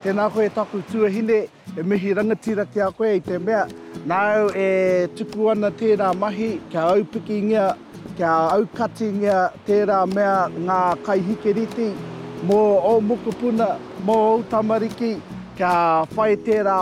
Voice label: Radio; Rangatira